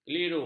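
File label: "keliru", Malay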